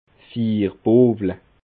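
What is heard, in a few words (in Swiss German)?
"fiirbowle", Bas Rhin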